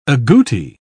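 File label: "agouti", British English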